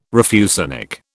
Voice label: "refusenik", British